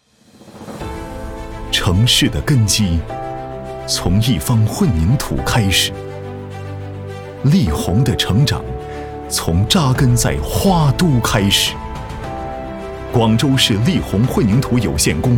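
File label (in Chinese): 男【宣传】; 广州市荔鸿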